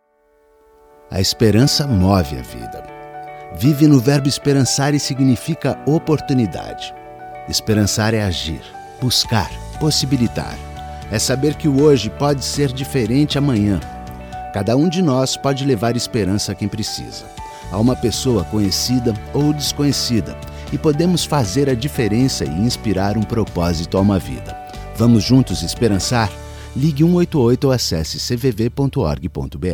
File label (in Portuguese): Voz Masculina; CVV; Esperancar